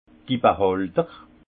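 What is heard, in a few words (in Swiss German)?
"behalter", Haut Rhin; vin; contenants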